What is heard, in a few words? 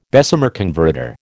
"Bessemer converter", American